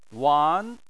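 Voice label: "wan", Chinese, second tone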